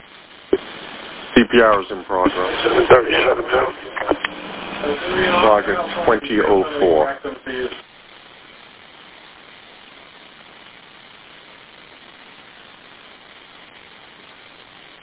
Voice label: Police Radio